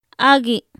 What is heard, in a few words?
"agɨ", Popoloca; muy; INALI